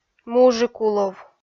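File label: "мужикулов" Komi, female; kpv audio dict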